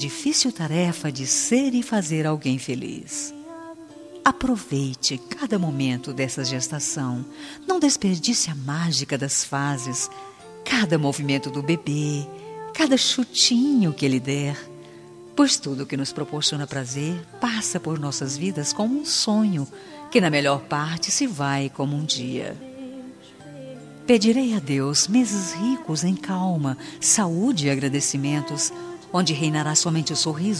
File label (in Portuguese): PARAB. PELA GRAVIDEZ FEM; FELICITAÇOES